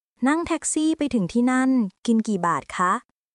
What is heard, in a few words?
Thai, basic thai phrases